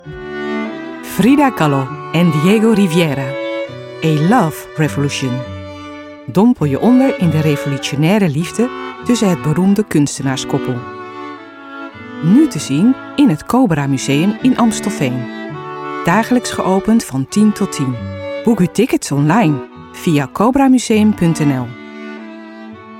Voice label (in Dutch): Corporate; Cobra Museum